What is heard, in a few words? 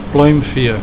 "pluimvee", Afrikaans